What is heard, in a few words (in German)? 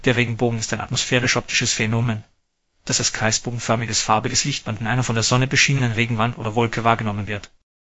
speaker, de DE; piper-voices